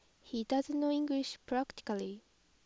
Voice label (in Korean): 비원어민 SHE DOESNT KNOW ENGLISH PRACTICALLY